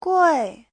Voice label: "gui4", chinese